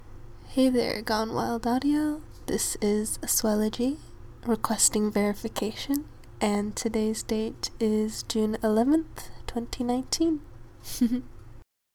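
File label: Verification, Female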